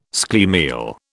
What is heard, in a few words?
"schlemiel", British